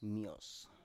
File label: "mios", Biak